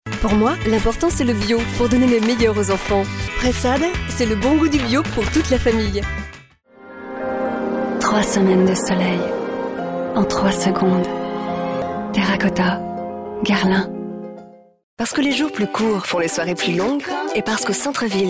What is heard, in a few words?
commercial